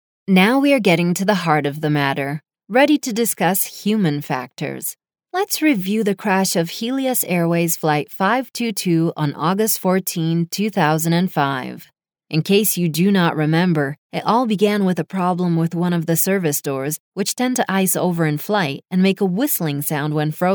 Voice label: Tech Support Elearning; Aero